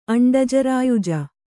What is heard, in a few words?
"ಅಂಡಜರಾಯುಜ", Kannada